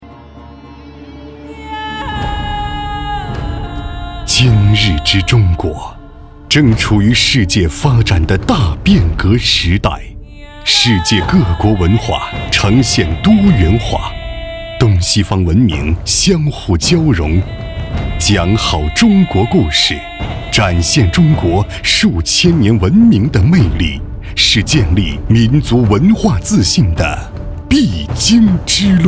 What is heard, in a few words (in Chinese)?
男 宣传片 浑厚大气; 深圳教育集团